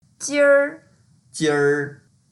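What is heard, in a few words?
"jir1", Mandarin Chinese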